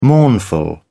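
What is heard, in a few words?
"mournful", British English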